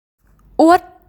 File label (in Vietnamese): "uôt", Tiếng Việt